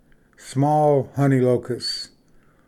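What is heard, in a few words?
"small honey locust", English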